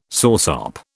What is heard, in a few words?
"soursop", British